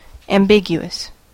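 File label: "ambiguous", US English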